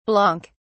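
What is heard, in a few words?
"blanc", British English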